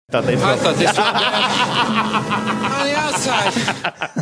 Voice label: laughing to i thought they smelled bad on the outside drop